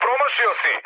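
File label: Serbian bomb failure mood med, speech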